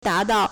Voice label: "da2 dao4", Chinese

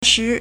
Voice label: "shi2", Chinese

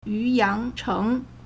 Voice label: "yu2yang2cheng2", Chinese

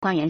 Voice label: "guan1yuan2", Chinese

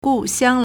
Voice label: "gu4xiang1", Chinese

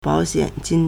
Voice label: "bao3xian3jin1", Chinese

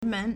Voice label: "men2", Chinese

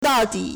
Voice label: "dao4 di3", Chinese

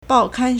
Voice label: "bao4kan1", Chinese

